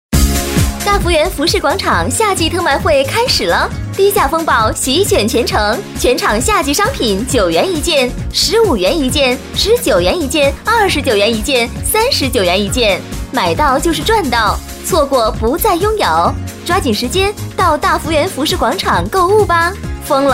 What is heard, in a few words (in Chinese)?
广告 甜美卖场 服饰; 大福源